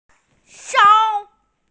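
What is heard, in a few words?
"sou1", Mandarin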